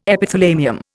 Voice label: "Epithalamium", Indian